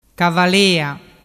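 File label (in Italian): "cavalea", dialect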